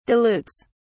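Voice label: "dilutes", British English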